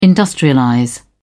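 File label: "industrialize", British English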